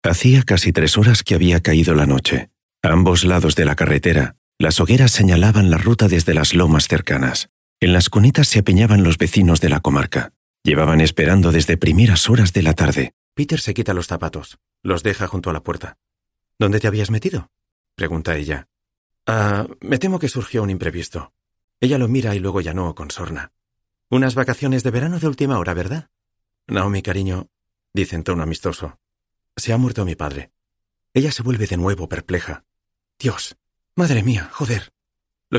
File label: spanish audiobooks; Spain